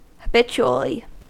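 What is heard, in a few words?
"habitually" US English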